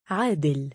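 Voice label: "3aadil" male, Arabic; عَادِل; アーディル; Aadil、Adil; 公平な、公正な（人）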